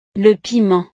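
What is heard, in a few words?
"le piment", French; verduras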